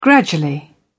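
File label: "gradually", English, British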